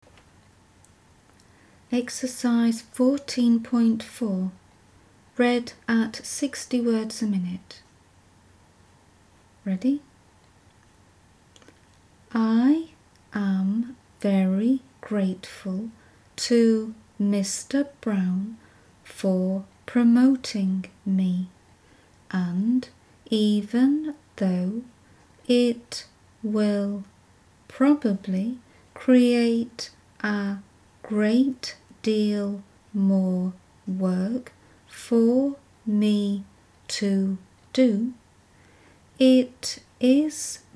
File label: 60wpm; Teeline